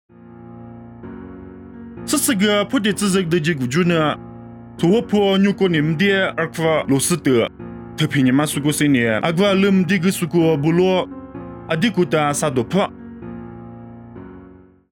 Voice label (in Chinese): 凉山彝语; 地中海特庇; nuosu